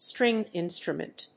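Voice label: "stringed instrument", English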